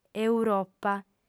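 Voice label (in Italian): "europa", lingua sarda, female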